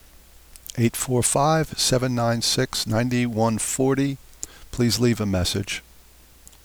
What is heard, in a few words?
Recorded Greeting; Skylook; Greetings